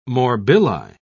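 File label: "morbilli", British English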